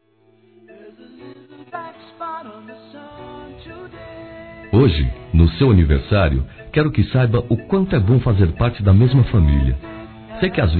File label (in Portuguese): Masculino, Telemensagens Aniversários